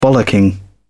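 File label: "bollocking", British English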